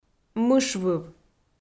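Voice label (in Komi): "мышвыв", Komi, female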